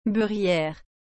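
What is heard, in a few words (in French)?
"beurrière", French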